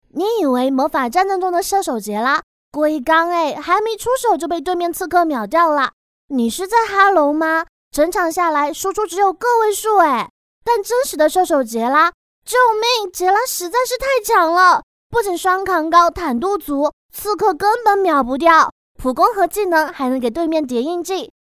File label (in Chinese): 游戏台湾腔女声解说